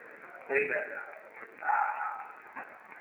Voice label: amen breath